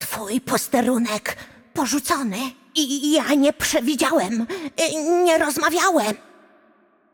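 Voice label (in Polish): Game Outro, Polish; Kennen; bloom minigame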